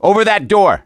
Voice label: misc directional, voice; gambler